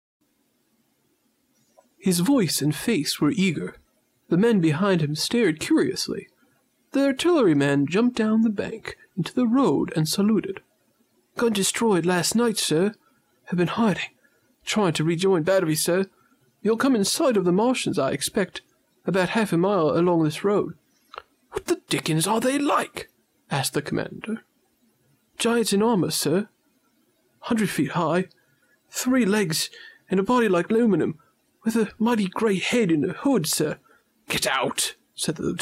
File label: Narrate; Crisp, professional